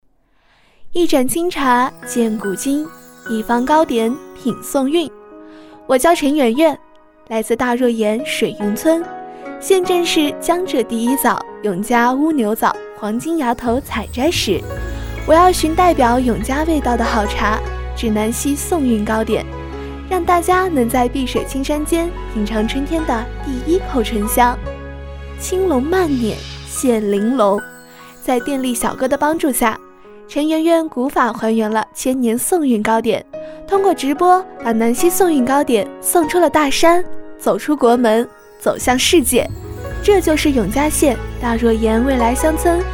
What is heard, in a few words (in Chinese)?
女 专题 糕点